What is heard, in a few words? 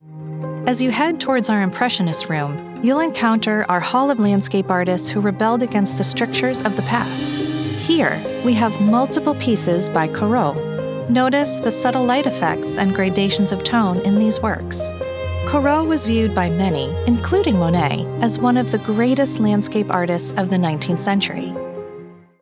Museum Tour